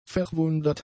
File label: "verwundert", German; adjectives